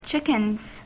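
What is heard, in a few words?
"chickens", English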